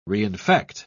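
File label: "reinfect", British English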